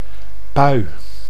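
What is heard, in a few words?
"pui", Dutch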